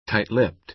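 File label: "tight-lipped", American English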